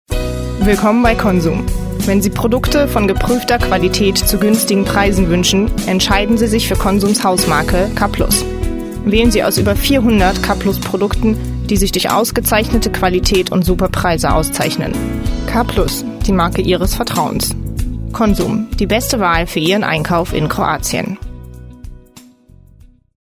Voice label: commercial, german; konzum

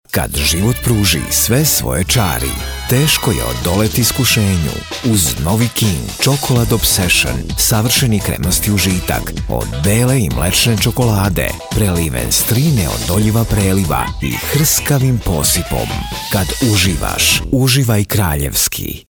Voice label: Commercial, serbian

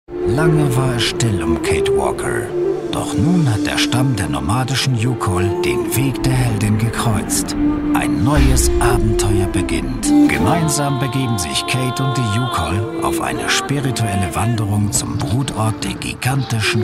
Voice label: Game Trailer, german

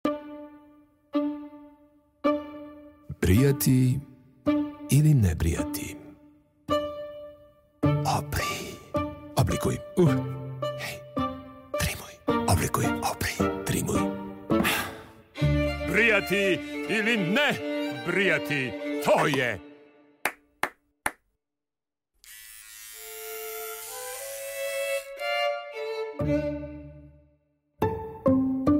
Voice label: TV, serbian

